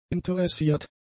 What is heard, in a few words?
"interessiert", German; adjectives